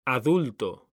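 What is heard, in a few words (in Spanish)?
"ADULTO" Spanish, male; mekanta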